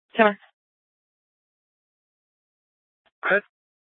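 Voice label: police dispatch, Scottsdale PD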